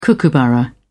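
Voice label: "kookaburra", English, British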